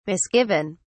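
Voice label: "misgiven", British English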